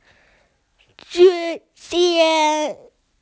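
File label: "rain boots", Mandarin; yu3xie2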